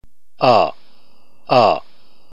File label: alpha long, ntgreek alphabet phoneme